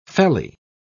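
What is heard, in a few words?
"felly", British English, dictionary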